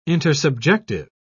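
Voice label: "intersubjective", British English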